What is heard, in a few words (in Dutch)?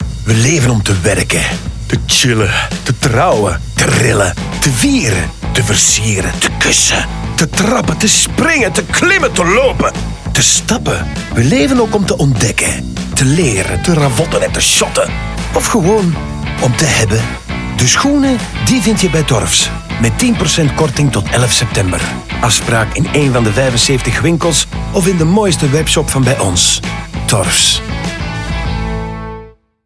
Radio, muziek; Torfs; Nieuwe start